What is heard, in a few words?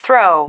"throw", female